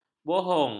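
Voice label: "bohong", Malay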